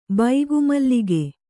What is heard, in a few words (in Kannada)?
"ಬೈಗುಮಲ್ಲಿಗೆ", Kannada